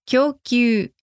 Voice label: "kyoukyuu", Japanese; supply provision